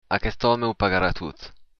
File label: pay, occitan